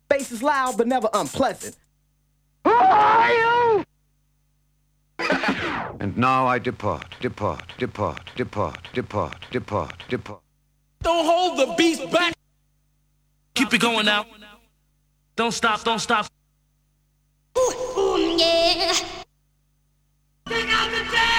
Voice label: Vocal Drops; Kniteforce; 1000 Samples Volume 1